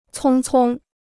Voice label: "匆匆", Chinese